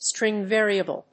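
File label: "string variable", English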